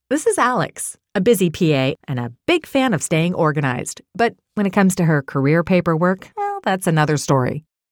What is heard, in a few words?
Confident Relatable